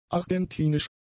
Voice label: "argentinisch", German; adjectives